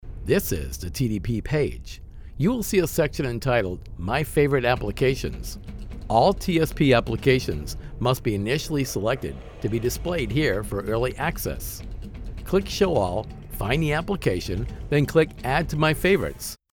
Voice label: CORP. BUSINESS TRAINING